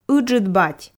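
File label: "ыджыд бать", Komi, female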